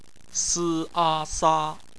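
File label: "sa" bopomofo, Chinese; phonetics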